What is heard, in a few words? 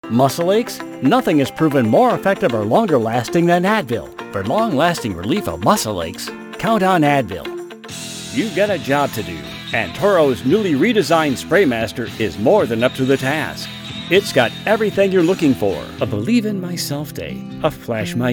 Commercial, Male Voice Over